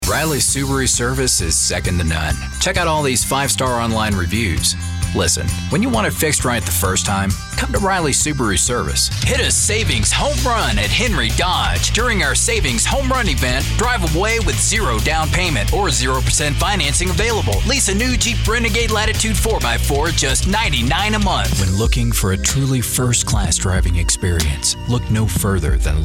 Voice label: Car Spots